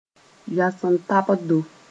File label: "yoestabdo", Mooré; plant sp